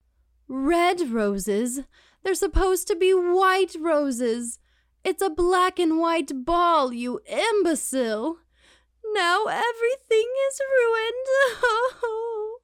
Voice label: Character Demo